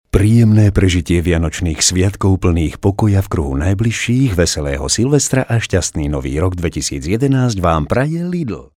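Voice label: Slovak, male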